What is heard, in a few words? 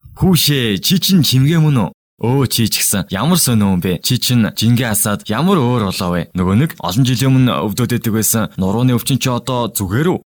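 Mongolian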